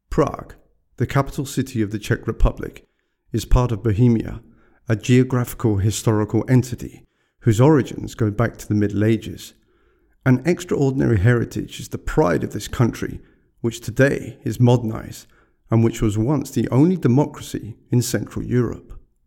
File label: Narration VO only, Promo Narration; Prague